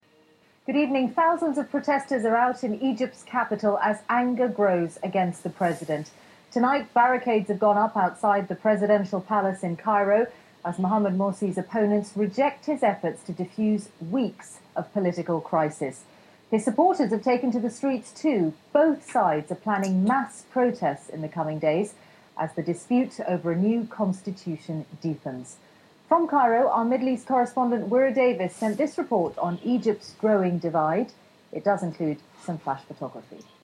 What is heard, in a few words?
A female TV newscaster, general british